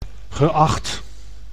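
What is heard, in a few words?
"geacht", Dutch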